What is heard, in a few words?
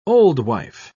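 "oldwife", British English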